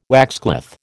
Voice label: "waxcloth", American